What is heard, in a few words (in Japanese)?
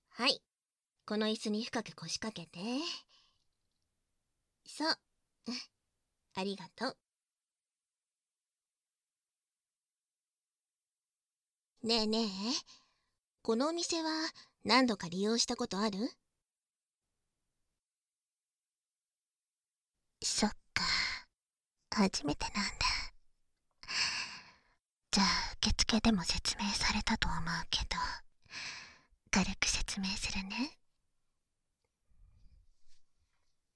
オイルフェイスマッサージ（バイノーラルマイク 効果音なし）, ASMR; バイノーラル編集